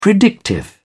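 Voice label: "predictive", English, British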